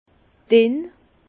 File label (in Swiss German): "dinn", Bas Rhin; vin; mince